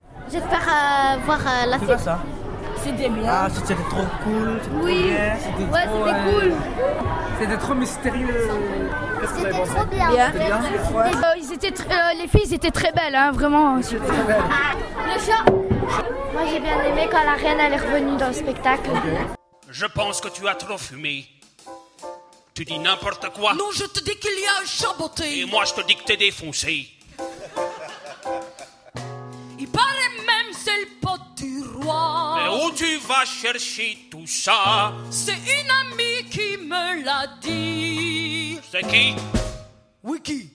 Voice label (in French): commentaire enfants